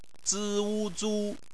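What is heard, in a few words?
"zu", Mandarin Chinese; phonetics; bpmf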